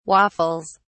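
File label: "waffles", British English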